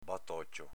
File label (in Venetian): "batocio", Veneto